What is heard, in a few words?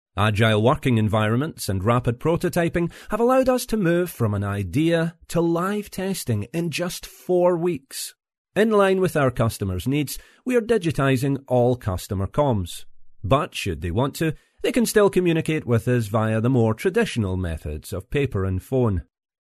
male scottish voice over talent upbeat